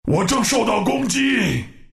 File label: underattack, zh; dota2